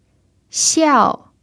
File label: "xiao4", Chinese